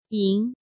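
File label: "Yíng", Chinese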